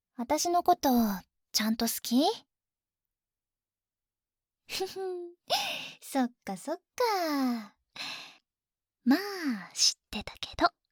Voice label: 恋人ボイス 女子高生

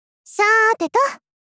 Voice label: さ～てと！ 少女 中音域

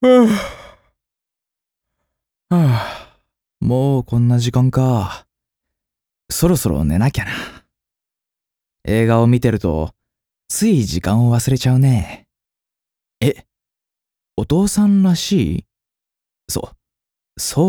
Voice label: 「おやすみ」お父さん

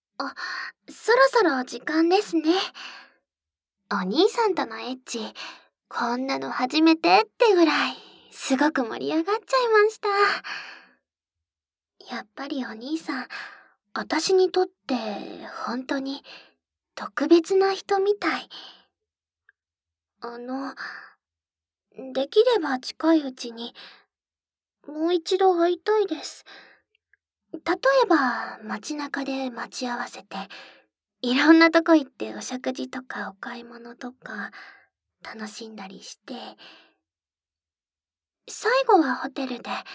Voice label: お別れの挨拶, ASMR

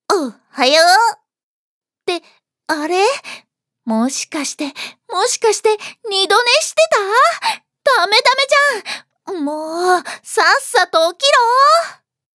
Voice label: ハイテンション, ハイテンションな女の子

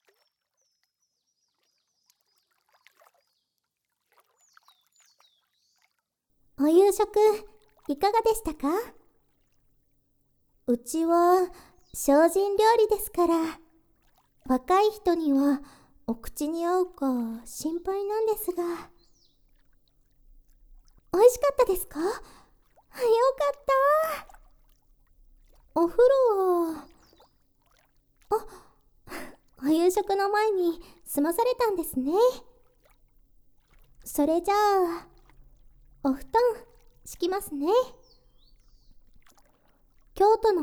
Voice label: 癒しの肩もみ・首もみマッサージ（立体音響）; 式神の旅館 ユタ編; 式神ユタ

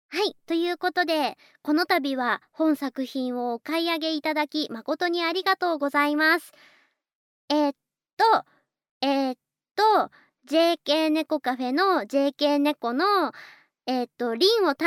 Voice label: フリートーク, ASMR

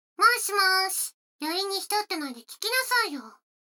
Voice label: 女の子 台詞, ASMR

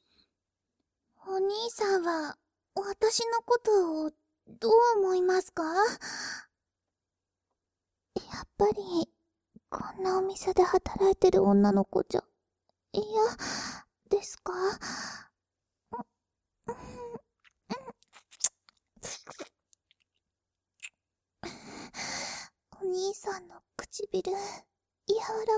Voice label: 愛撫＆ディープキス・淫乱飲尿・クンニ, ASMR

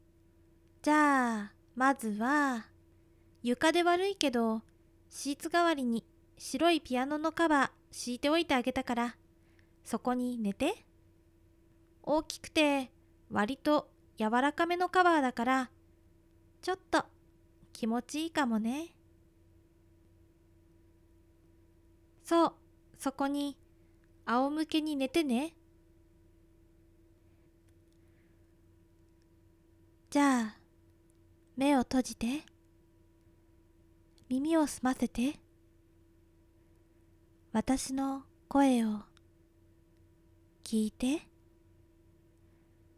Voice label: 催眠導入～リラックス; 反響少なめ.ver

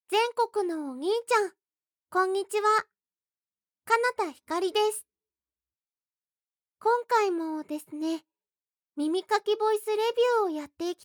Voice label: 耳かきボイス, ASMR

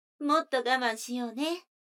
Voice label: 女の子台詞

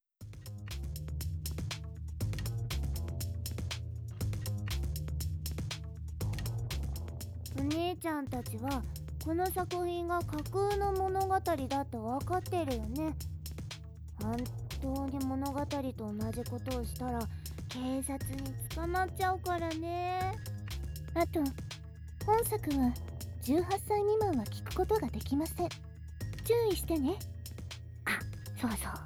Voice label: システムボイス; 2人の○●っ娘とエレベーターに閉じ込められました。

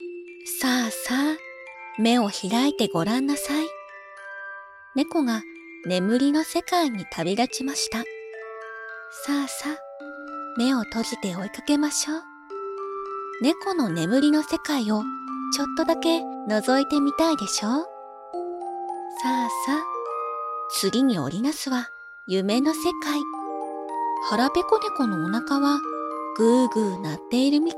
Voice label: 夢 の 中 へ, 朗読, BGM あり

